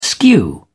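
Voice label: "skew", British English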